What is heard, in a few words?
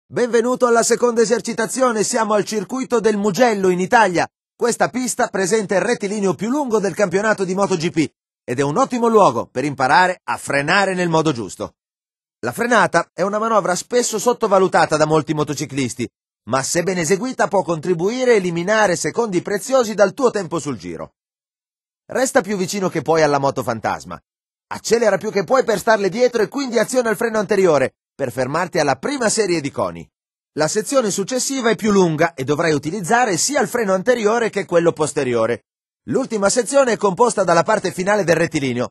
braking italian, speech; MotoGP 3